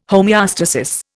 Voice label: "homeostasis", Indian